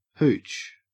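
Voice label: "hooch", Australian English; pronunciations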